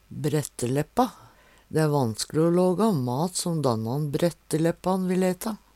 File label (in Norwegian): "bretteleppa", Numedalsmål